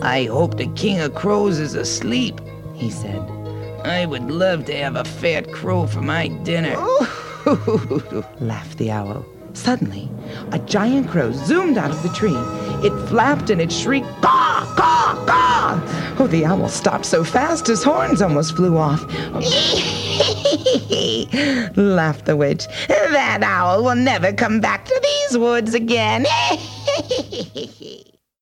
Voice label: Children s Audio Witch